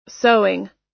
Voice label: "sewing", us